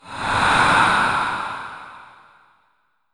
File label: Dark Voice Eerie Short